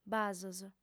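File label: "basos", female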